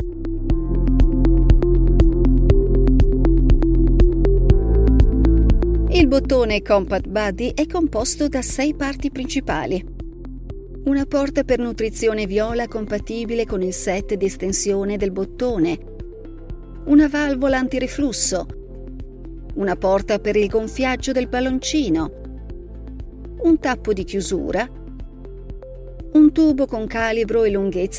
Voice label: video training; compat buddy